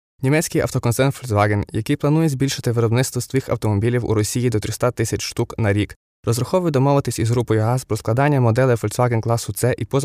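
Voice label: Ukrainian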